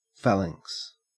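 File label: "phalanx", Australian English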